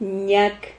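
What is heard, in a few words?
"ñac", Spanish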